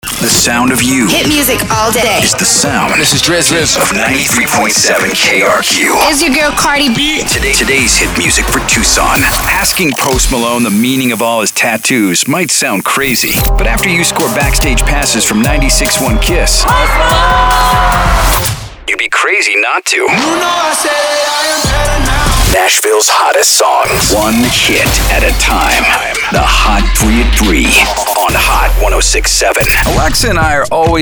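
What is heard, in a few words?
radio men contemporary hit radio; radio imaging; CHR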